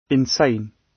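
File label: "insane", British; Crime and punishment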